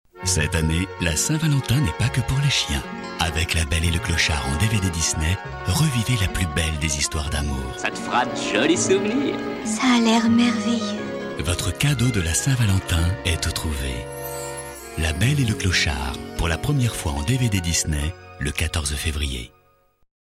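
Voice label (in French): PUB, voix; Disney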